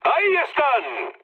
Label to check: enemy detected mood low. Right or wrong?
Right